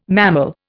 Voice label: "mammal", Indian